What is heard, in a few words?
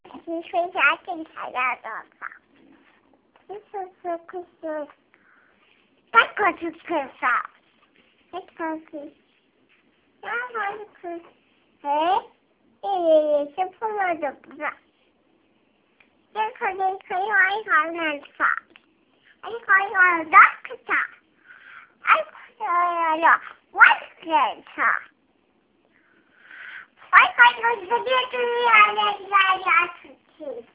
Reading English Book